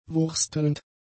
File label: "wurstelnd", German; adjectives